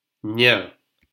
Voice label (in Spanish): "ana", silabas; lectura